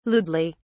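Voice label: "lewdly", British English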